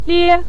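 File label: "lie", Mandarin Chinese neutral tone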